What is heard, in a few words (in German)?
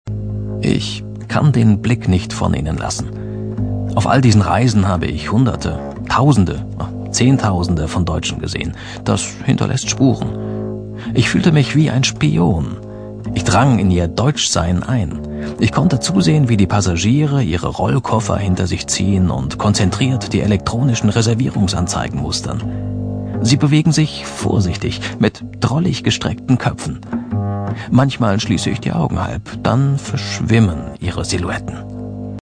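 Erzähler Reisebericht, Sprecher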